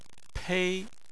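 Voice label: "pei" first tone, Chinese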